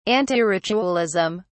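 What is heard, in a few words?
"antiritualism", British English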